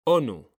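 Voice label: "ONU", Spanish; mekanta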